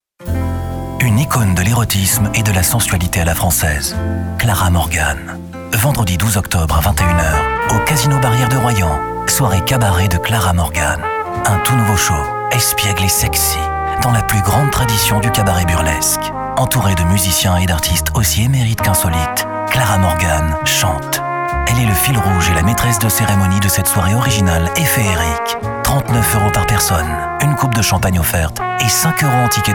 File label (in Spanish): Masculino